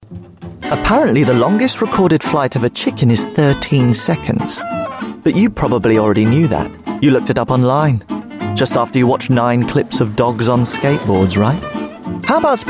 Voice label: english british commercial

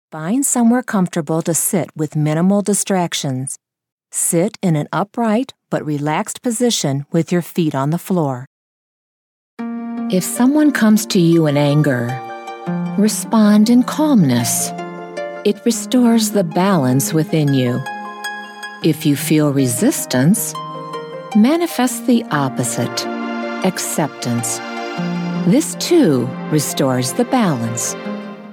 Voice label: english american; voix off professionnelle

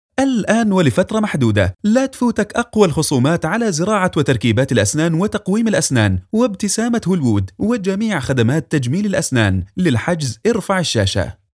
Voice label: arabic announcements

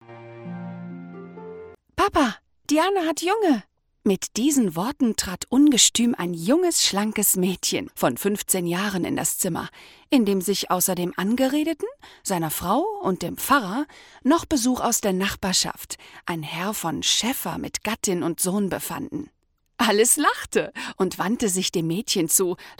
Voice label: german audiobooks

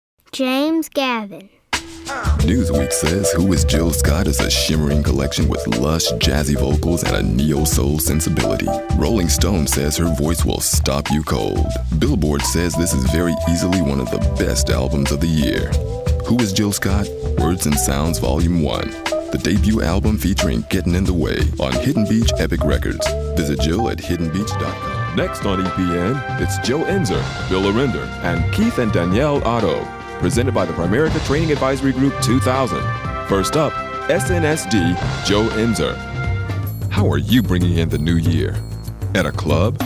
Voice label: english american others

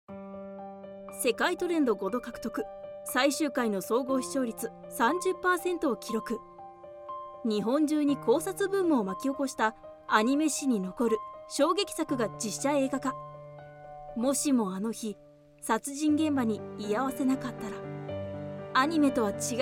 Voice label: japanese movie trailers

